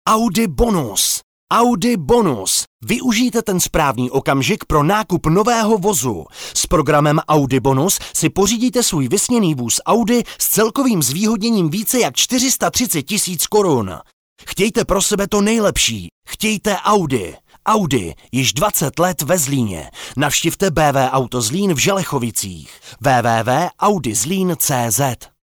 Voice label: male czech voice over sales

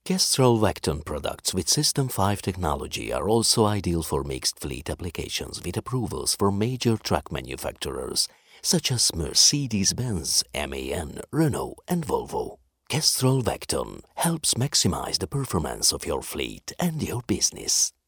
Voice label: male hungarian voice over english

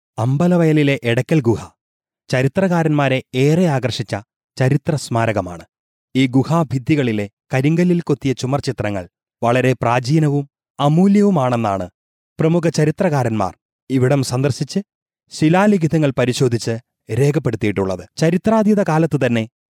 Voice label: male malayalam voice over talent narrative

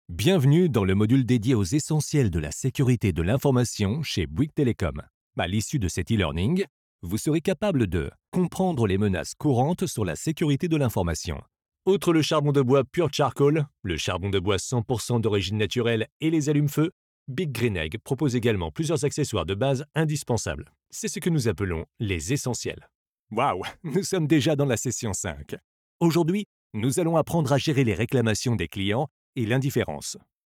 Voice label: male french voice over talent narrative

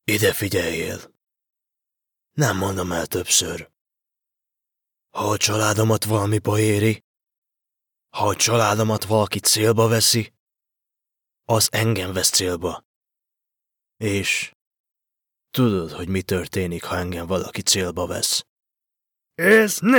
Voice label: male hungarian voice over talent character

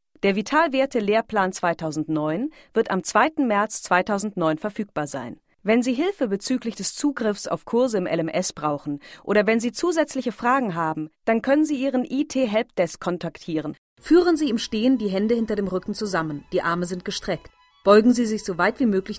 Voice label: female german voice over sales

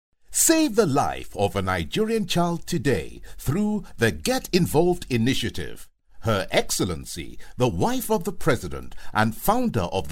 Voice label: male african voice over upbeat, african english

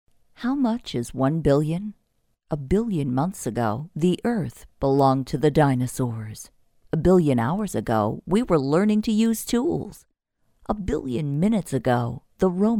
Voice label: female american voice over narrative; english american

